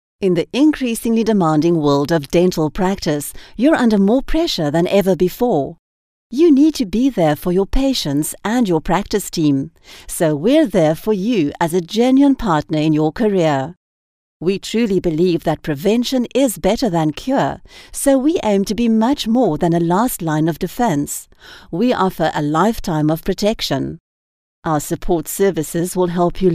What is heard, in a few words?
Explainer video